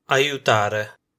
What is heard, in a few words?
"aiutare", Italian